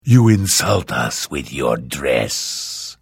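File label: Hello, Vo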